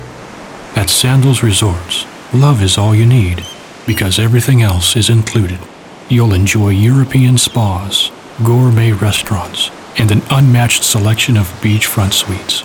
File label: Intimate introspective relaxed